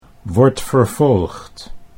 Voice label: "wordt vervolgd", Dutch